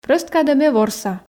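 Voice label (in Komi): прӧст кадӧ ме ворса, Komi, female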